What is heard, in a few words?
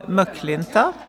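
"Möklinta", Swedish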